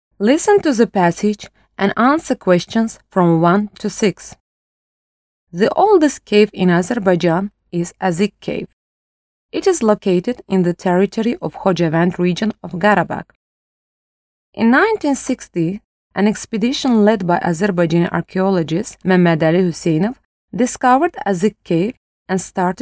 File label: model eng